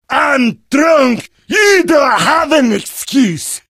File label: jeers; demoman; source engine; tf